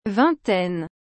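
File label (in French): "vingtaine", French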